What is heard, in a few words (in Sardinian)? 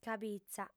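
"cabitza", female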